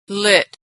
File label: verb "lit", pronunciation